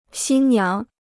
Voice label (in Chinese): "新娘", Chinese